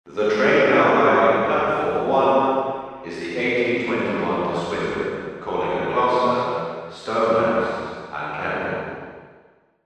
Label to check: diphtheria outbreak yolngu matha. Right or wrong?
Wrong